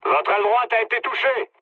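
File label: French right wing mood low; War Thunder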